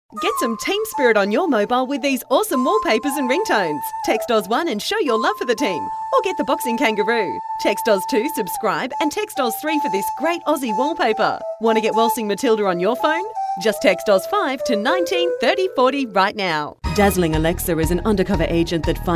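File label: English Australian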